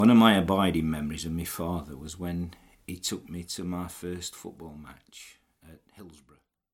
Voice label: SHEFFIELD MALE

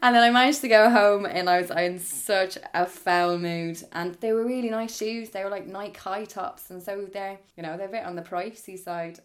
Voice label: DUBLIN CITY FEMALE

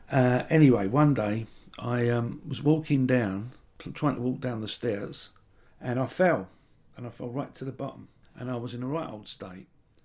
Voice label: EAST LONDON MALE

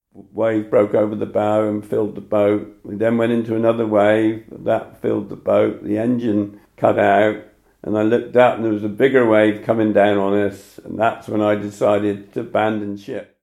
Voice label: TRURO MALE

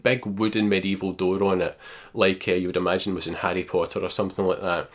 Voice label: AYR MALE

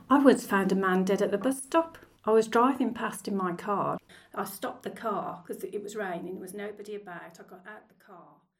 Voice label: BIRMINGHAM FEMALE

